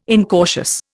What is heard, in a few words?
"incautious", Indian